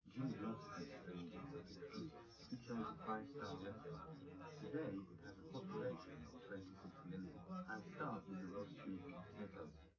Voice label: male4 liverec 250ms 1m sim